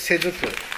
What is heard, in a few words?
"sezuku", minamiyamagata